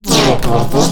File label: Alien Scientist; little robot sound factory